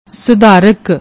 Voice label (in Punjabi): "sudharak", Punjabi; ਸੁਧਾਰਕ